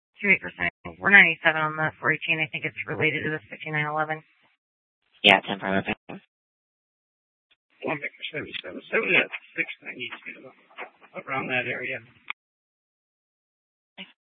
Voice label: Dispatch, Mesa PD